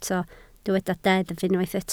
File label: "deddf", Welsh